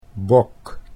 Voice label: "bok", Dutch

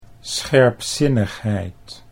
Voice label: "scherpzinnigheid", Dutch

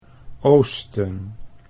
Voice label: "oosten", Dutch

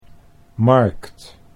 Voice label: "markt", Dutch

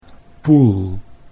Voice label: "poel", Dutch

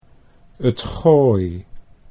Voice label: "t Gooi", Dutch

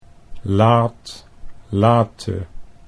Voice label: "laat late", Dutch

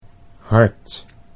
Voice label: "hart", Dutch

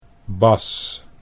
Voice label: "Bas", Dutch

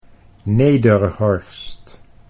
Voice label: "Nederhorst", Dutch

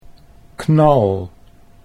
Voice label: "knal", Dutch

